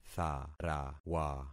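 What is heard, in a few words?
"tharawa", concatenated syllables; Affixing experiment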